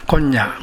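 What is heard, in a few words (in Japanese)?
"konnya", 南山形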